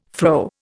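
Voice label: "fro", Indian English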